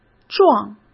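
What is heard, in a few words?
"幢", Mandarin Chinese; chinese-flashcards; hsk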